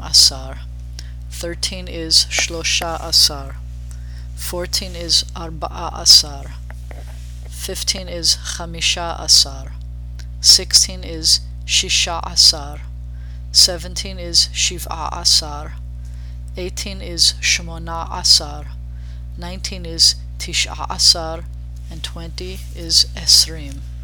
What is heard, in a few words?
Numbers Masc 16 through 20